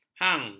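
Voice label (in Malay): "hang", Malay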